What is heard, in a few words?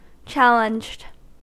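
"challenged", US English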